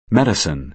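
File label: "medicine", American English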